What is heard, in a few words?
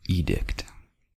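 "edict", American English; pronunciation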